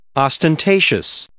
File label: "ostentatious", English